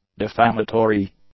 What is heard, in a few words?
"defamatory", english; adjectives